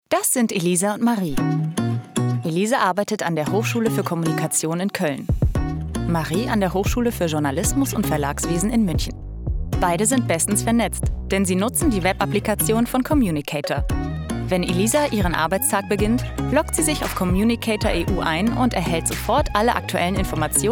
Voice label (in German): Imagefilm; Communicator